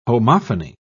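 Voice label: "homophony", British English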